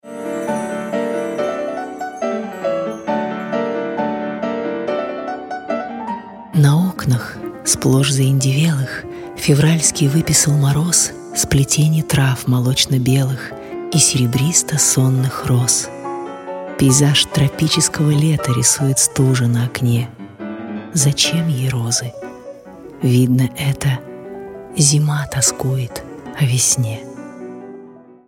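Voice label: Russian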